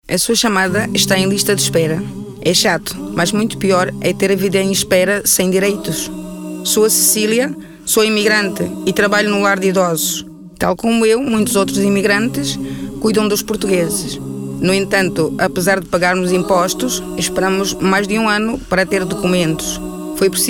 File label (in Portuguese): telefone; Mensagem